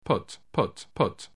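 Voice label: "put", English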